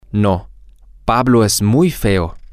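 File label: Spanish, male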